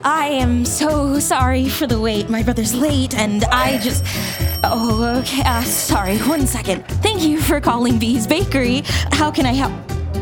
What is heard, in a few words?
Character